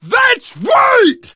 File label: taunt, survivor voice; source engine; left 4 dead 2; manager